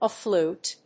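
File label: "A flute", English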